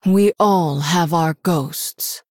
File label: Female Human Greetings; HERO